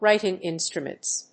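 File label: "writing instruments", English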